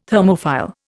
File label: "Thermophile", Indian